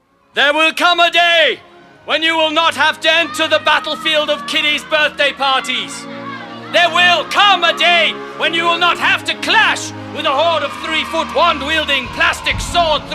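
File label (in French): spot, spots radio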